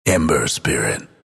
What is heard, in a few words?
announcer pick emberspirit; dlc bastion